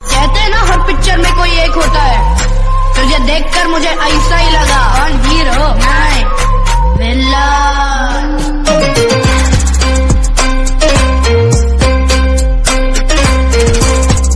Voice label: Villain Dialogue Ringtone; KGF 2

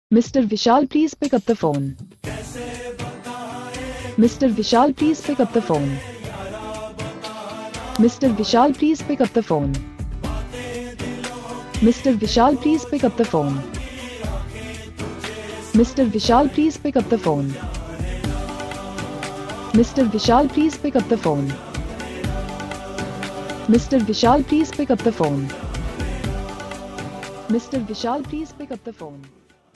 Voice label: Name Ringtone With Hindi Song; Vishal

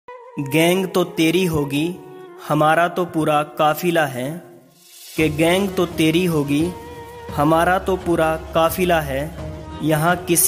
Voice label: Bad Boys Attitude Shayari Ringtone

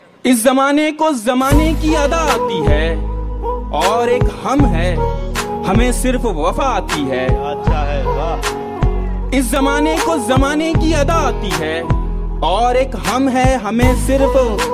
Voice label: Guitar Shayari Ringtone

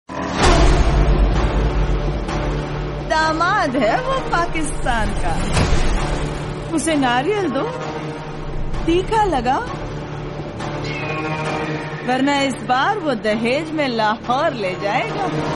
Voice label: Movie Dialogue Ringtone; ringtones; Gadar 2

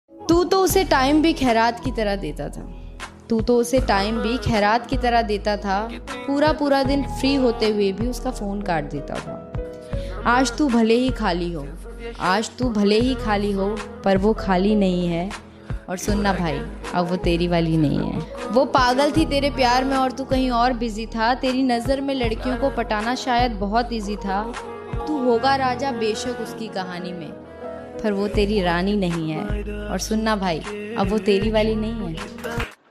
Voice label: Sad Female Poetry Ringtone

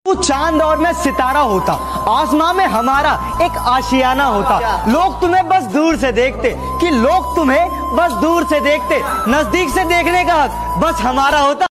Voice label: Shayari Ringtone

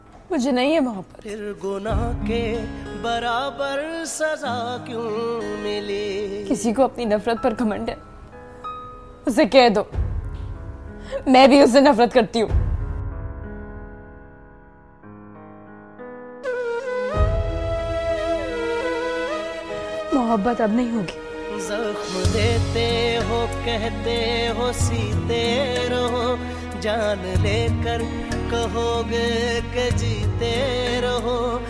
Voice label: Dialogue Ringtone

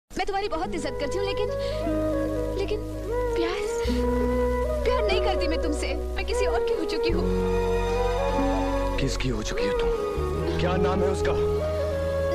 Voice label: Sad Dialogue

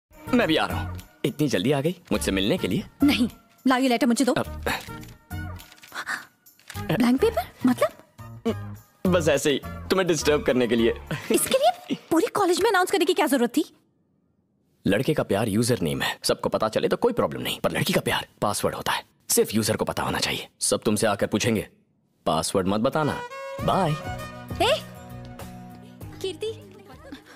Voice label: Dialogue Ringtone; Super Khiladi 4

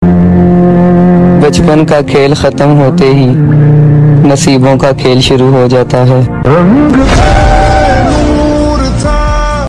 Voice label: Broken Sad Poetry Ringtone

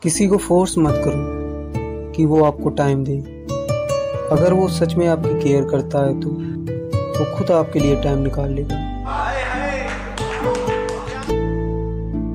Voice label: True Love Shayari Ringtone

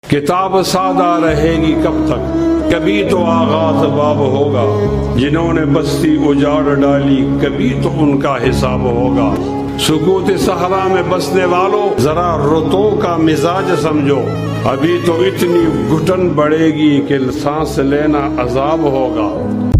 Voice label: Top Shayari Ringtone

